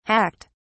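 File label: "hacked", British English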